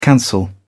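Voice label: "cancel", British English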